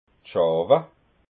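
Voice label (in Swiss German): "schawa", Alsatian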